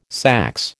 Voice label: "saxe", American